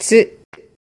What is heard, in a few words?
"mie", Japanese